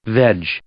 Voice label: "veg", English

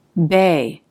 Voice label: "bay" beɪ, English

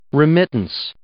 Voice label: "remittance", English

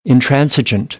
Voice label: "intransigent", American English; ə - ɪ

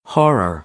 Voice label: "horror", American; AmericanBritish

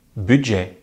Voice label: "budget", French; Consonantes en francés; easypronunciation

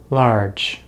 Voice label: "large", English; easypronunciation

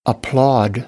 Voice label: "applaud", American English; ɑː - ɔː

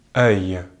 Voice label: "œil", French; Vocales orales en francés; easypronunciation

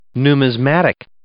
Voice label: "numismatic", English

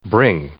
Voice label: "bring", English; verb

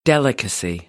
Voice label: "delicacy", American; ə - ɪ

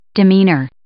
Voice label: "demeanor", English

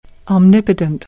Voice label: "omnipotent", American English

